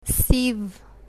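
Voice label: "grey", Bulgarian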